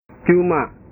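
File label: "cuma", Indonesian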